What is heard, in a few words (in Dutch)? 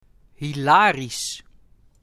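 "Hilarisch", Dutch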